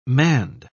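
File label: "manned", British English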